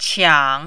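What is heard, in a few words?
"qiang", Mandarin, third tone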